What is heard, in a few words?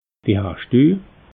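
"wie heisch du", Alsatian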